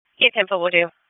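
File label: Police Southwest District Dispatch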